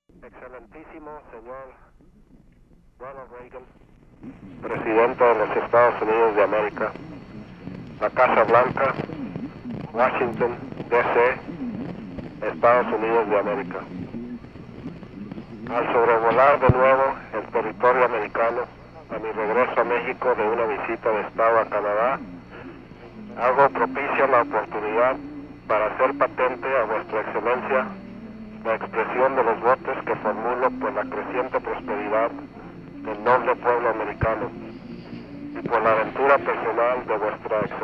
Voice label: telephone conversation; Message from President De La Madrid to President Reagan (No President Reagan on tape), TRT :59